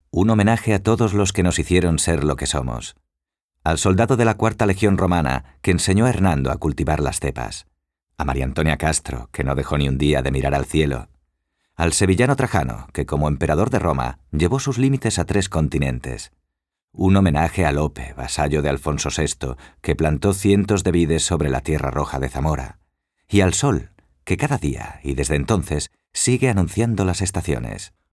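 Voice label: Spanish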